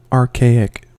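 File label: "archaic", US English; pronunciations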